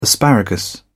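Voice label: "asparagus", English, British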